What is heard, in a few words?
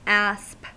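"asp" English, US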